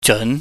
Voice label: "jeun", chinese

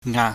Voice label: "nga", Chinese

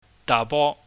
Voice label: "dabo", cantonese

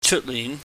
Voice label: "cheutnin", cantonese

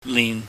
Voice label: "nin", pingyam

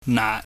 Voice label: "naat", pingyam

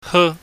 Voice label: "heu", chinese

